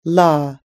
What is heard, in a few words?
"law", us english